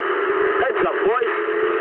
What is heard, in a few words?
eng near waypoint mood low, speech eng